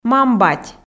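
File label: "мам-бать", Komi, female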